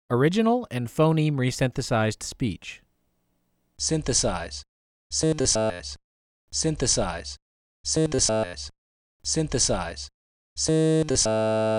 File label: Phoneme Synthesized Speech